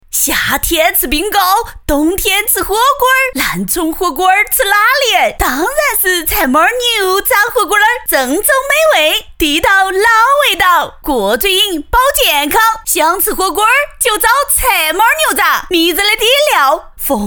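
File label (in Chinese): 四川 女 四川方言样音女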